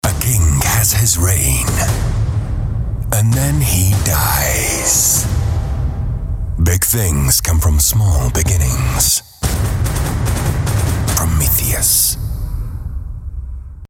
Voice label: english british movie trailers